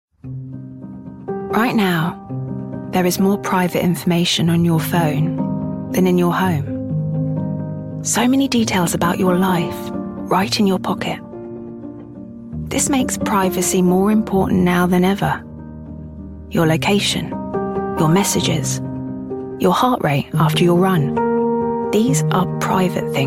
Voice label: commercials, voiceover

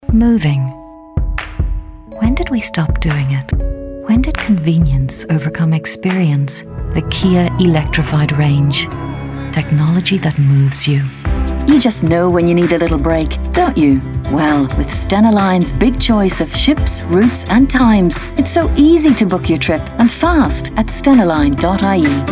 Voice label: commercial showreel, voiceover

